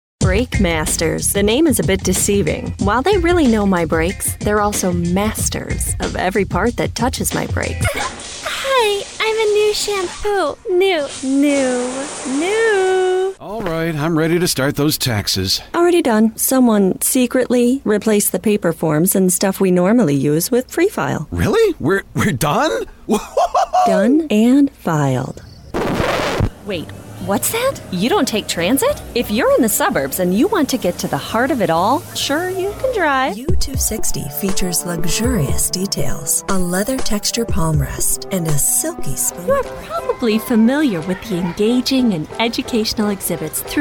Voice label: Commercial